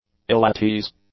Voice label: "ill-at-ease", English; adjectives

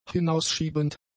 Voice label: "hinausschiebend", German; adjectives

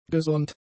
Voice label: "gesonnt", German; adjectives

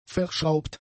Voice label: "verschraubt", German; adjectives

